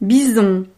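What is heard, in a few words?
"bison", French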